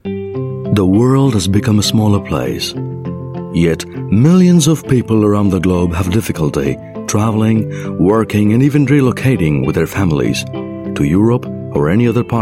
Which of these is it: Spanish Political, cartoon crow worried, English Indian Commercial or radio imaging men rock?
English Indian Commercial